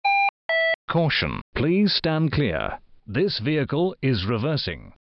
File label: Caution please stand clear this vehicle is reversing alarm